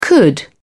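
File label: "could", British English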